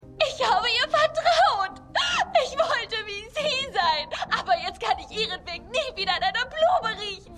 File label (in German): Weinende Frau